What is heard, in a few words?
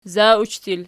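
"zauch" plural, Archi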